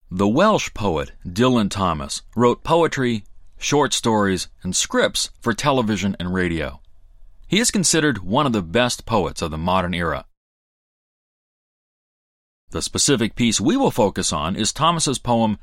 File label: US English eLearning